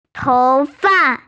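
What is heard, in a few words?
"hair", Mandarin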